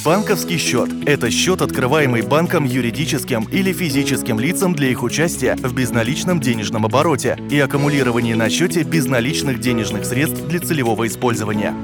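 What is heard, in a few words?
presentation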